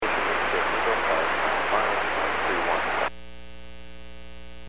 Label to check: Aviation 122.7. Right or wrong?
Right